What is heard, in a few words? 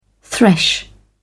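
"thresh", British English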